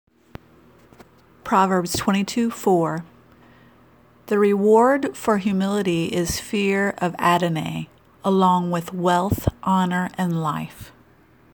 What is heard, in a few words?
Childrens Bible Verse; Proverbs 22 4